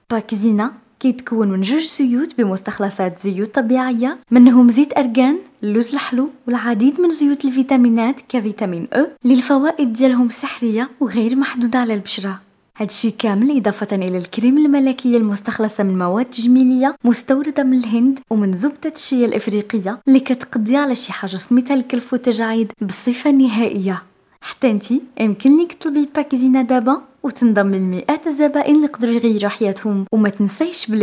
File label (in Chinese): 阿拉伯语女 外语 小语种 干声